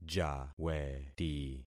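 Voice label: "jaweti", concatenated syllables; Affixing experiment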